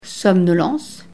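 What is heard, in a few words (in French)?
"somnolence", French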